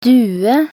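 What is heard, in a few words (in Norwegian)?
"due", Norwegian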